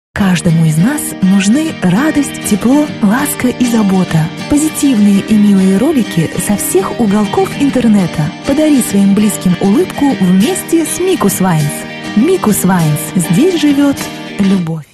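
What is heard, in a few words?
RU Commercial, Russian; GoLocalise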